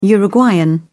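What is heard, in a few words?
"uruguayan", British English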